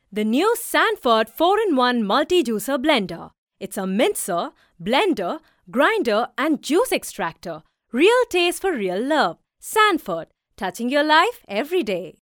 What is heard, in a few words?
female indian voice over sales